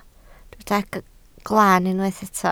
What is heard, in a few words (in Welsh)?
"glan", Welsh